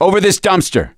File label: misc directional, voice; gambler